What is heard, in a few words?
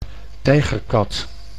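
"tijgerkat", Dutch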